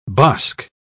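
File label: "bosk", British English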